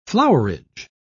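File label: "flowerage", British English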